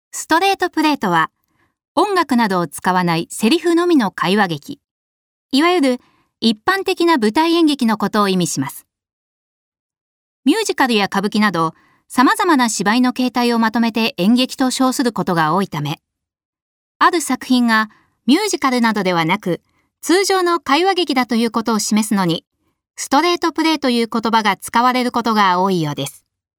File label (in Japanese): narration, f natural; chara navi; chara navi voice sample